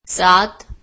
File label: "7", Marathi